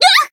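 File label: Voice damaged Japanese; anaberu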